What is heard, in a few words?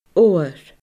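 "odhar", Scottish Gaelic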